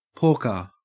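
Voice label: "porker", Australian English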